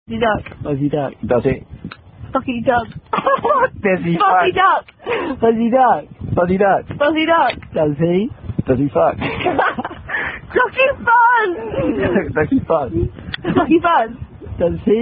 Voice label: Fuzzy Duck, Ducky Fuzz Recitation In Woods, Drinking Game